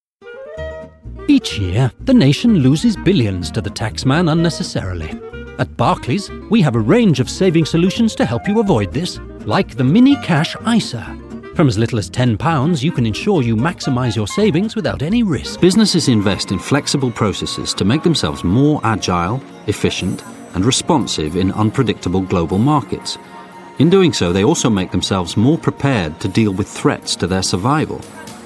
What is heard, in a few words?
Commercial Reel; Voice Reel; English